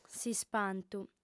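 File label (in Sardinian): "s'ispantu", female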